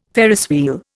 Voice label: "Ferris wheel", Indian